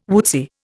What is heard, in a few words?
"woodsy", Indian